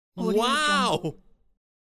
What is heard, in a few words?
male wow